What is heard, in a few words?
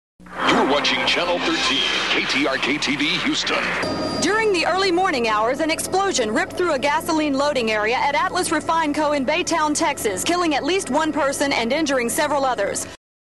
Industrial, News; Atlas Refineco